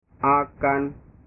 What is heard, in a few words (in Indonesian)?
"akan", bahasa